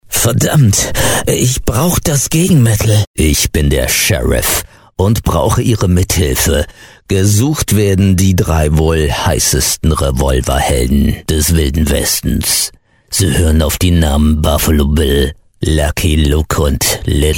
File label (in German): falsetti zeichentrick